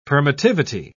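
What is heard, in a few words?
"permittivity", British English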